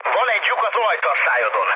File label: damaged oil, speech hun; War Thunder